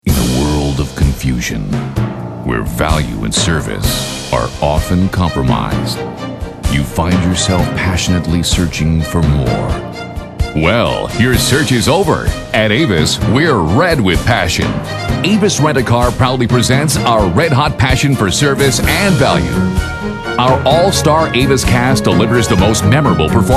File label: TV Commercials